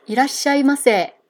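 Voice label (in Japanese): "いらっしゃいませ", Japanese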